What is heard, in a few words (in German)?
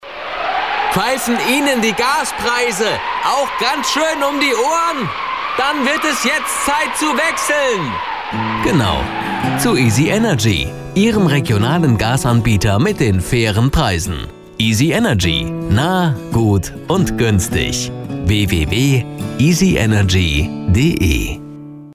sprecher werbung; easy energy